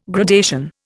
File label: "gradation", Indian